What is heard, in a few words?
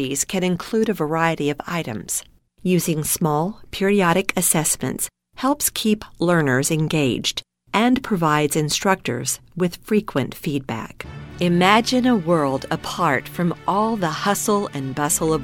E-learning Narration